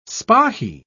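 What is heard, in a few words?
"spahee", English, British English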